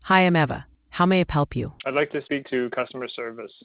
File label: conversation